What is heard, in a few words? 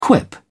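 "quip", English, uk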